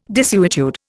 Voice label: "desuetude", Indian